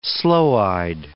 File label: "sloe-eyed", words